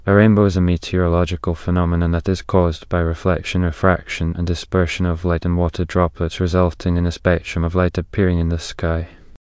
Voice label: speaker, en GB; piper voices; vctk